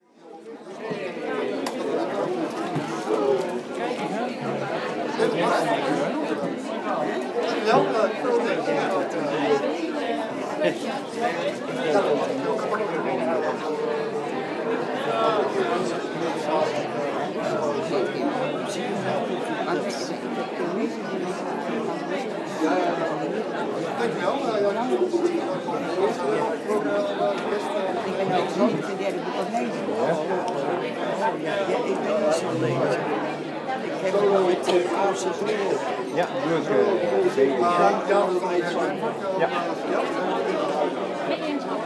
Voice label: Foyer Mumbling